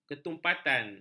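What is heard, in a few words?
"ketumpatan", Malay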